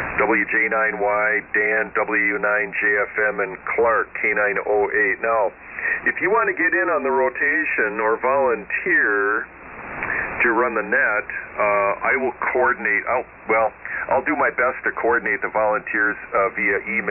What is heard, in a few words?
SSB Net Control Rotating Schedule Announcement